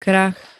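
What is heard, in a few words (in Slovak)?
"krach", Slovak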